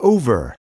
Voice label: "over", spanish